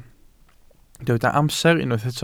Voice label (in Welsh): "amser", Welsh